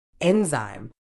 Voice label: "enzyme", uk